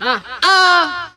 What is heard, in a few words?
"uh uh", Voice; ooh ahh